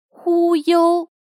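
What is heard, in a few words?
"忽悠", Chinese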